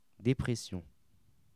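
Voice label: "depression", French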